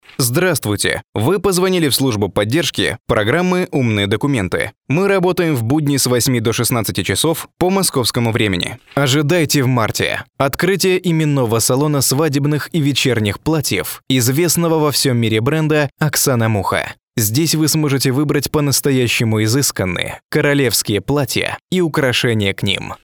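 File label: Russian, interactive voice response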